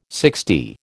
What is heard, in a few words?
"sixty", American